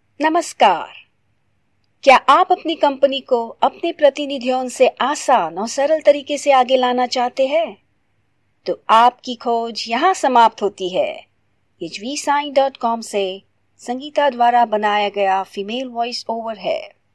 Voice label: Real Hindi Female Voiceover